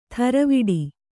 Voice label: "ಥರವಿಡಿ", Kannada

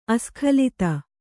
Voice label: "ಅಸ್ಖಲಿತ", kannada

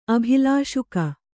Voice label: "ಅಭಿಲಾಷುಕ", Kannada

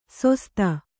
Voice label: "ಸೊಸ್ತ", kannada

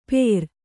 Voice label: "ಪೇರ್", kannada

